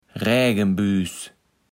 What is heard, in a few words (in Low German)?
"Rägenbüüs", kaevels platt